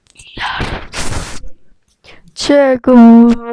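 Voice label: "jig", spoken word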